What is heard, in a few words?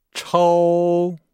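"chou", Chinese; Nihao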